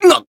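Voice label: damaged, Japanese; claude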